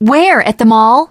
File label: teengirl, voice; Left 4 Dead 2; l4d1 finale